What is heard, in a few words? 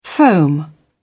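"foam", British English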